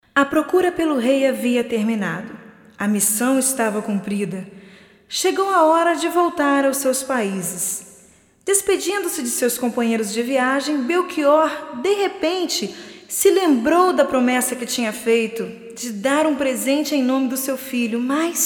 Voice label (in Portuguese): Narração + Interlúdio III